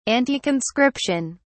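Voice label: "anticonscription", British English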